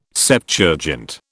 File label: "Septuagint", British